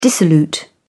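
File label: "dissolute", British English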